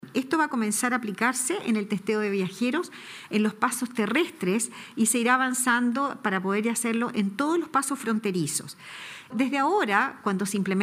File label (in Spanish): cuña